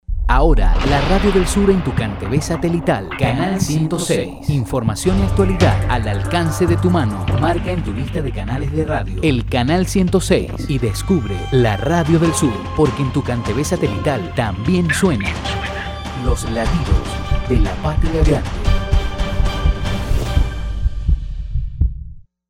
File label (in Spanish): promo satelital; cantv